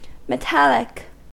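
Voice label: "metallic", American English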